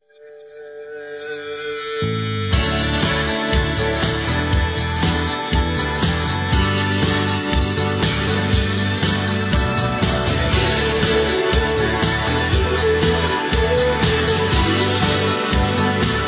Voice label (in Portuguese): PATRÃO M